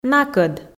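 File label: "накӧд", Komi, female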